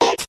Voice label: it's, robot